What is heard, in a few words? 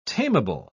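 "tamable", British English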